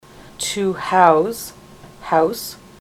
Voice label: to house house, ontario